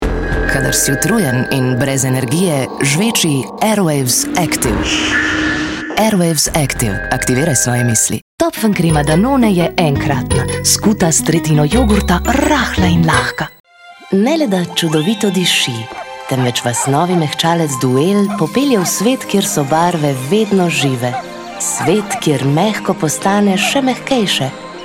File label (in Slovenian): slovenian commercial; Slowenien; Slowenisch; Standard-Slowenisch; Synchronsprecherin